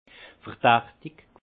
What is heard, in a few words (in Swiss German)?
"verdachtig", Alsace; vin; douteux